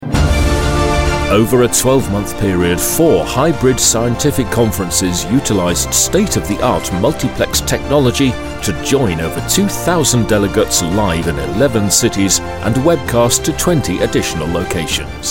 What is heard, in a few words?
narration